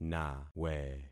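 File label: "nawe", concatenated syllables; Affixing experiment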